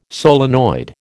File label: "solenoid", American